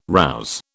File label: "rouse", British